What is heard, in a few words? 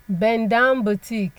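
"bendownbotik", Nigerian Pidgin, female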